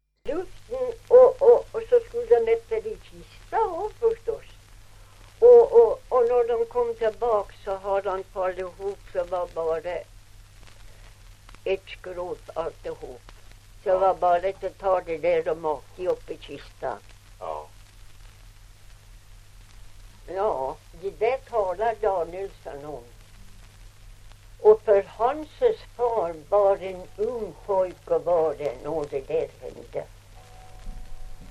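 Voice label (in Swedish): Ovansjö Gästrikland, svenska sagor dialekter; Folkminnen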